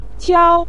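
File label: "qiao", Mandarin Chinese neutral tone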